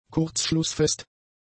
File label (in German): "kurzschlussfest", German; adjectives